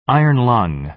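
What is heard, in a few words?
"iron lung", American English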